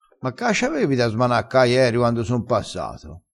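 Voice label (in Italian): "smanacca", vocabolario dialettale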